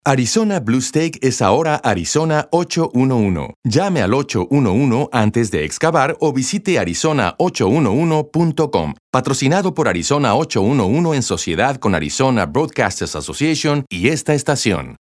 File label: Spanish; Arizona811